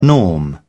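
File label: "norm", British English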